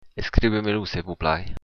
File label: write, occitan